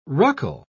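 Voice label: "ruckle", English, British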